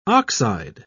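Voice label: "ox-eyed", British English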